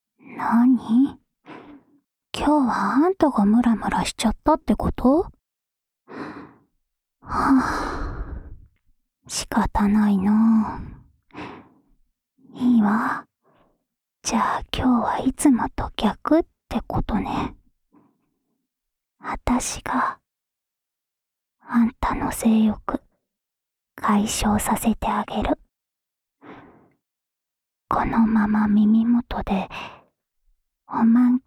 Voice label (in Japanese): おま○こボイスループ（左耳）; オナサポ用